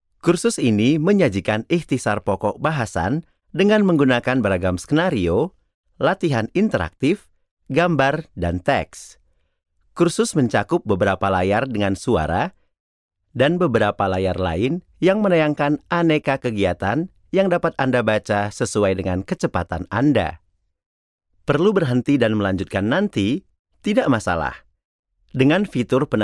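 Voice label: ID General, Indonesian; GoLocalise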